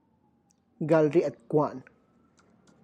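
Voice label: to grind herbs, Tlacochahuaya Zapotec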